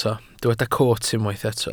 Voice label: "coot", Welsh

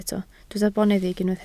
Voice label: "boneddig", Welsh

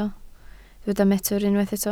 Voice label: "metr", Welsh

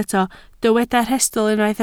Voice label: "rhestl", Welsh

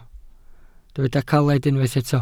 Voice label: "caled", Welsh

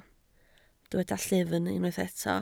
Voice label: "llyfn", Welsh

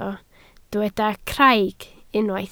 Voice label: "craig", Welsh

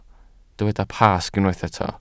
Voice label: "Pasg", Welsh

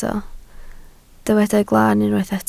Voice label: "glaan", Welsh

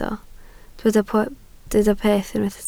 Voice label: "peth", Welsh

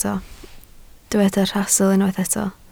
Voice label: "rhastl", Welsh

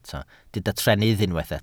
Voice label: "trennydd", Welsh

